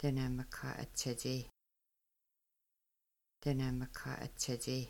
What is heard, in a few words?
tablecloth, Kaska